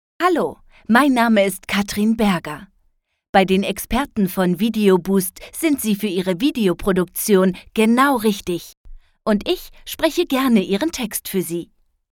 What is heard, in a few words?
Voiceover